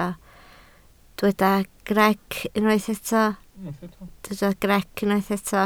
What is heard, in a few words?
"greic", Welsh